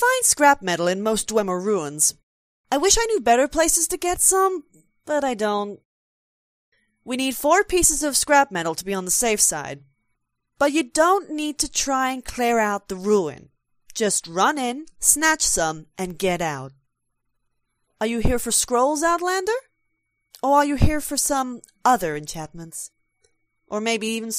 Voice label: bosmer, wood elf voice auditions